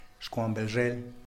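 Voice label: "xkwanbezhel", Tlacochahuaya Zapotec; mulberry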